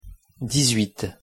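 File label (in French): "dix-huit" French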